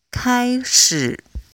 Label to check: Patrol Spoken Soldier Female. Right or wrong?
Wrong